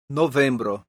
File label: "novembro", esperanto; leciono1